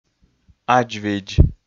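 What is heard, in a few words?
"адз видз", Komi, male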